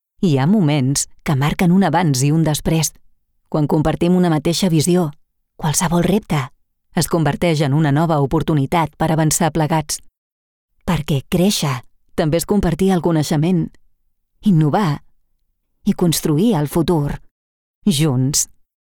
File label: Catalan VO Emocional